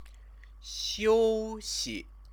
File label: "xiuxi", Chinese; 休 息; rest; noun; Chinese lessons